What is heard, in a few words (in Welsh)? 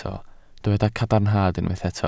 "cadarnhad", Welsh